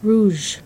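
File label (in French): "rouge" French adjective, masculine singular; Colors and Adjectives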